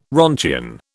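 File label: "roentgen", British English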